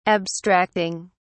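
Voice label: "abstracting", English, British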